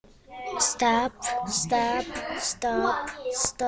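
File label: "staff", spoken word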